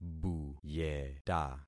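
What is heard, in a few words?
"buyeta", concatenated syllables; Affixing experiment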